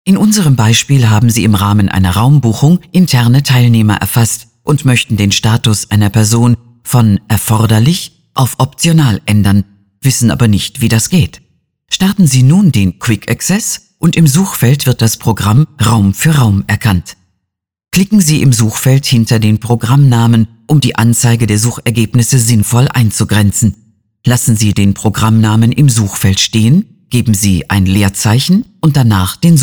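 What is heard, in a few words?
female, German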